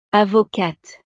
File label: "avocate", francés; profesiones